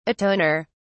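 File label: "atoner", English, uk British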